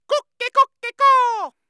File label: japanese rooster